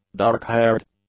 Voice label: "dark-haired", English; adjectives

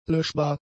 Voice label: "löschbar", German; adjectives

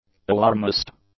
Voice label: "alarmist", English; nouns

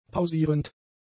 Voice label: "pausierend", German; adjectives

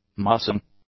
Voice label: "mussing", English; adjectives

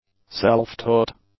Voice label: "self-taught", English; adjectives

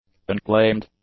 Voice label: "unclaimed", English; adjectives

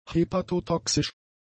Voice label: "hepatotoxisch", German; adjectives

